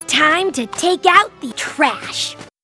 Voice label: rage; tf; vs ponyville; rainbow dash